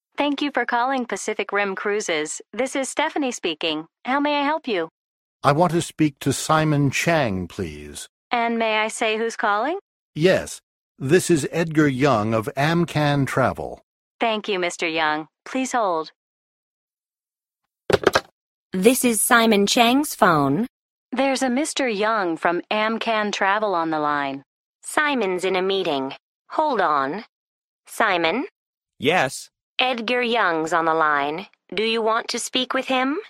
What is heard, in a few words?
Telephone, english listening survival